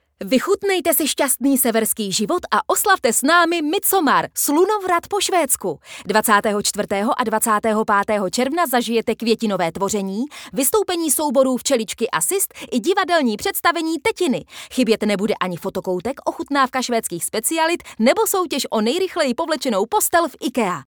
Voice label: czech female